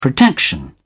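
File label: "protection", British English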